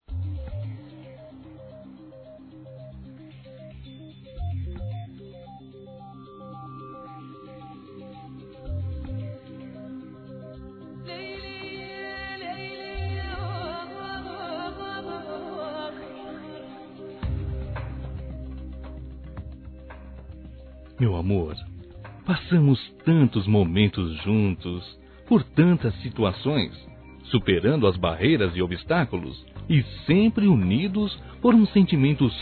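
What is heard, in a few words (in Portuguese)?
ROMANTICA, Telemensagens, Românticas masculino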